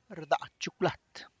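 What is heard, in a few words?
tlacochahuaya, Zapotec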